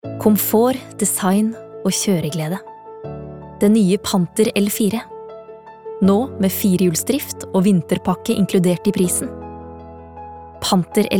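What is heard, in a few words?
no soft, norsk, kvinne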